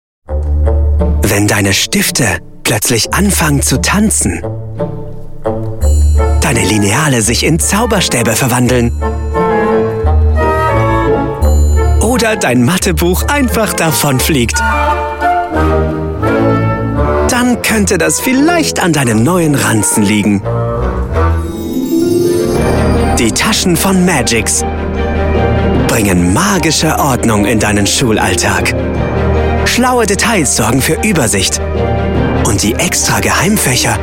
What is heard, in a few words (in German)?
werbung; magx